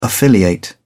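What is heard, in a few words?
"affiliate", English, uk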